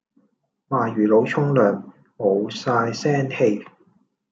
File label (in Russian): Голоса, Гонконгский